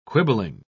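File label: "quibbling", British English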